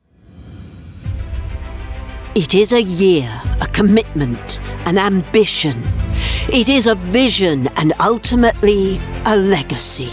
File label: Commercial